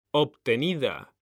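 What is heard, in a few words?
"obtenida", Spanish; mekanta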